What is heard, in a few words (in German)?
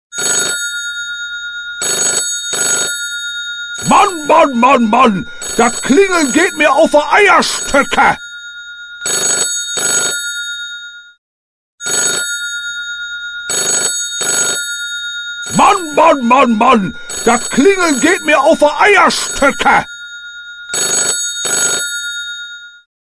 dat klingeln eier, ringtones